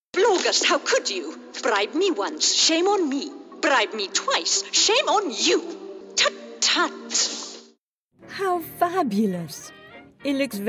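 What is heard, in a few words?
English UK, mujer Británica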